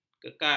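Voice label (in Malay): "kekal", Malay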